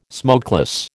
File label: "smokeless", American